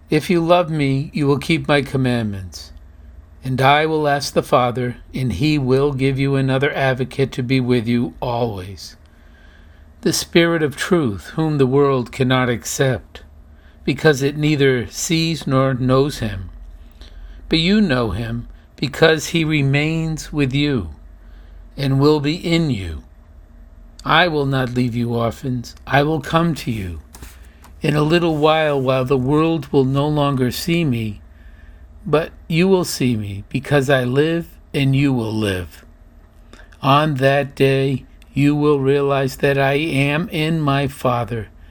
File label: Gospel Reading; 6th Sunday in Easter